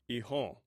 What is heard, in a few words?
"ihon", omaha language